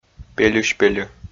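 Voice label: "пельысь пельӧ", Komi, male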